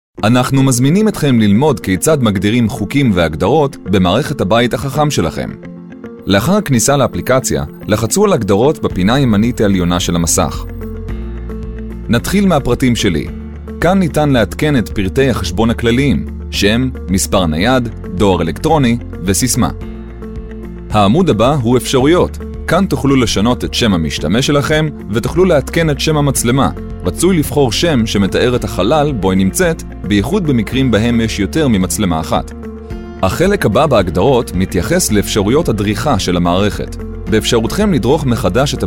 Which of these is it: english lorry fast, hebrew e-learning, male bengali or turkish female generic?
hebrew e-learning